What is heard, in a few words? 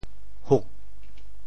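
"huk4", Teochew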